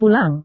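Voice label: "pulang", Indonesian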